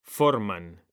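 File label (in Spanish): "forman", Spanish; mekanta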